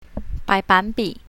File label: "bai2ban3bi3", mandarin